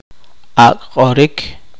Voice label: poqomchi; neologismo

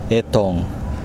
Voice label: "firewood", Kosraean

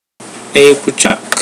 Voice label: "son", pohnpeian

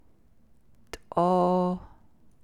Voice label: navajo adverb; with

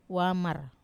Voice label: "wamar" Papuan hornbill, Wamesa

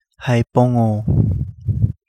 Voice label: Kapingamarangi; giant guitarfish

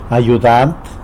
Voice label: "ayudan", helper, tlacolula

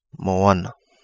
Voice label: Kapingamarangi; ocean deep

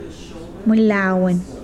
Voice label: "mucus", Mokilese

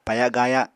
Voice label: "paya-gaaya", Apatani

